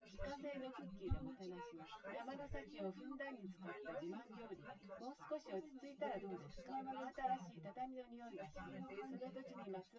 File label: female; synthconv 130ms 1m sim; SiSEC08; SiSEC underdetermined